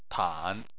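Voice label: "tan", Mandarin Chinese, third tone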